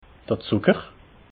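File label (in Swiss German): "zucker", Alsatian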